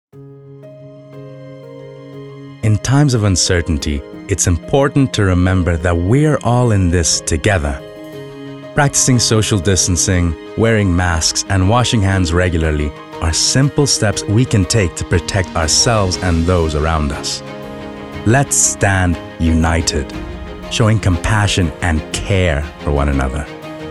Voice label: PSA

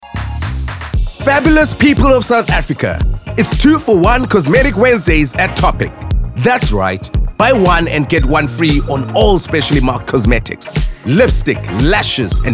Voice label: Hard Sell

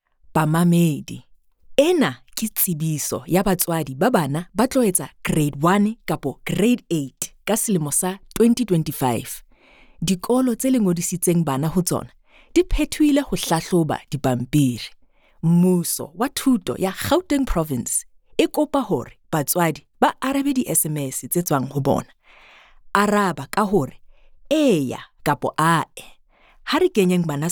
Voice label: Sesotho Announcer

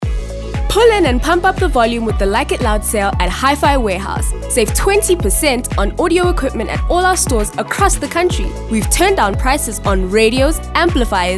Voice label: Hard Sell; Hi-Fi Warehouse

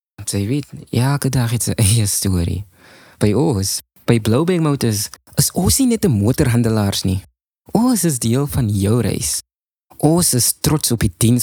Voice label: Afrikaans kaaps; Blouberg Motors

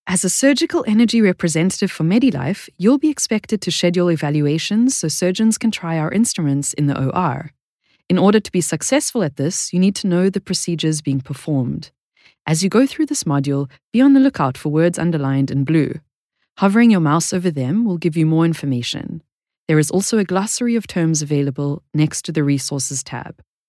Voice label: SA Instructional

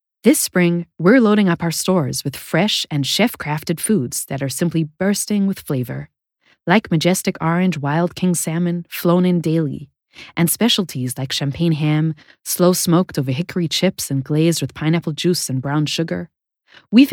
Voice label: US Conversational

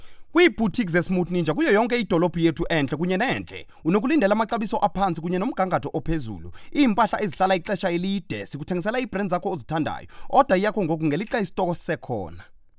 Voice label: HARDSELL XHOSA IN STORE

